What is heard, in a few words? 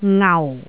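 "ngau4", Cantonese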